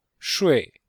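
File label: "shui4", Chinese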